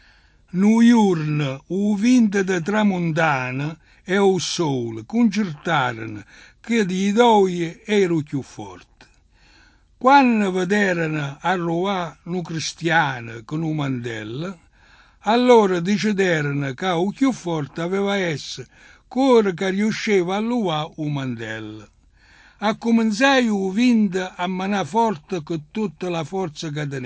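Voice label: Italian dialect, male, Palazzo San Gervasio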